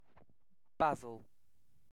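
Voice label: "basil", British English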